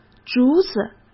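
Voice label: "竹子", Mandarin Chinese; hsk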